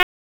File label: PS TAC, p25; wake p25